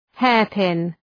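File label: "hairpin", English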